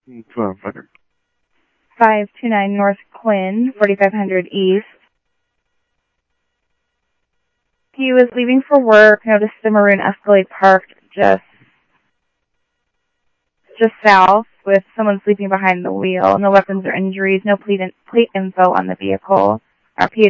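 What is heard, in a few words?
Mesa Police Department, scanner audio trunk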